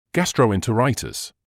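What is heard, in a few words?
"gastroenteritis", British English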